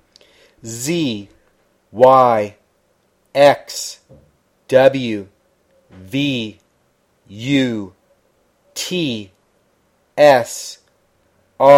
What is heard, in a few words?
english alphabet backwards slow